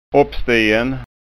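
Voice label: "oppstien", Scanian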